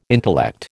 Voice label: "intellect", American